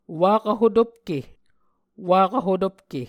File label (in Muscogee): "wakv hotopke", Muscogee